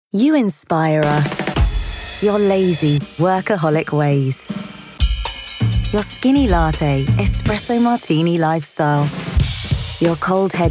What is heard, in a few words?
voiceover commercial